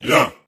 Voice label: "yo", group of men